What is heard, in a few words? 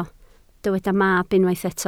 "mab", Welsh